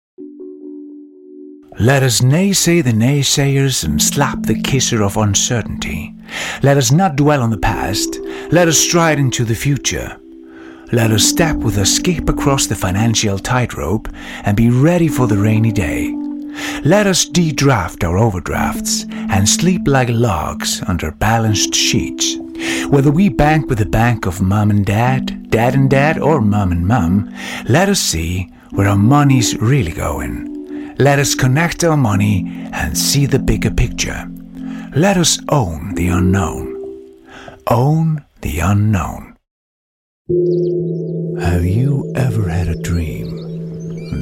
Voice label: English

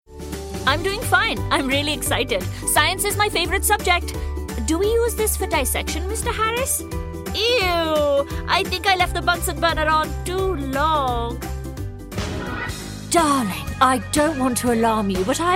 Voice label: ANIMATION

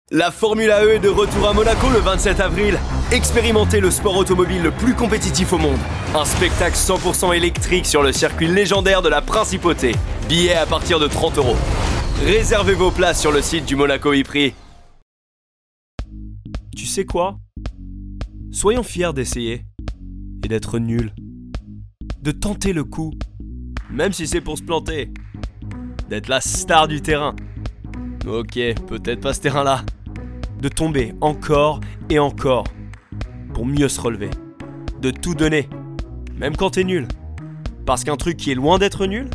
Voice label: French

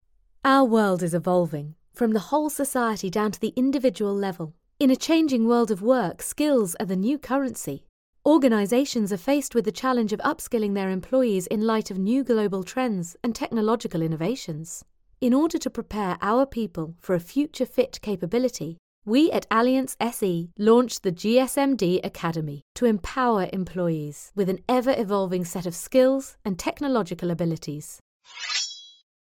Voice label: Corporate voice only

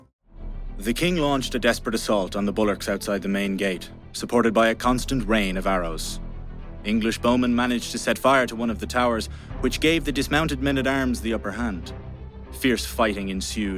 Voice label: narration, 20s

